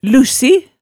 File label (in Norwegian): "lussi", hemsedalsmaalet tale